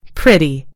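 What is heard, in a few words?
"pretty", British English; gcompris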